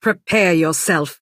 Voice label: female taunt; Altmer